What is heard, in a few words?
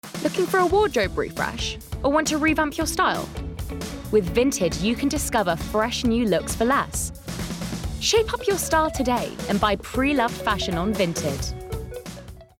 Commercial; Vinted